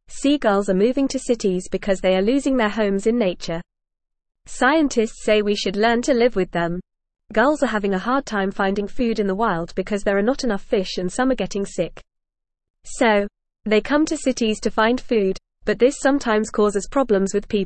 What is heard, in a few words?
English FAST Reading; Seagulls are moving to cities and need our help